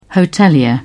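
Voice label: "hotelier", British English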